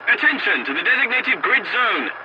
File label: voice message attention to point, english